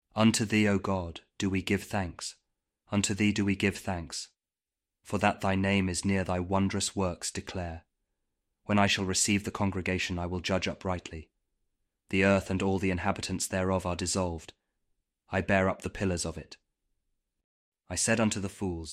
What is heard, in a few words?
psalm kjv king james audio bible; psalm 75